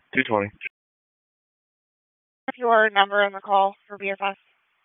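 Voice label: Raleigh Police Northeast District Dispatch, p25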